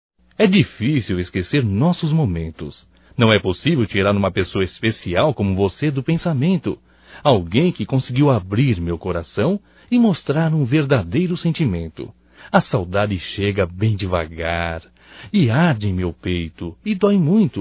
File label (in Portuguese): SAUDADES ROMANTICA, Só Voz, masc; Telemensagens; Telemensagens 2017